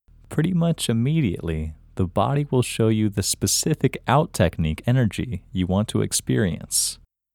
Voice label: Male